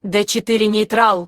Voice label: girl